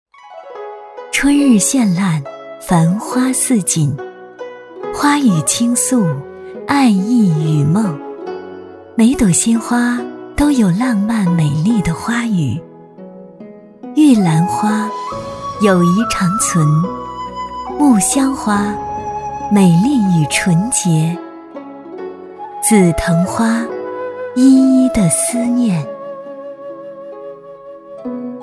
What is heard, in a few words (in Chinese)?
女-韵味旁白 古风 古韵